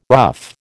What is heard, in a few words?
"rough", American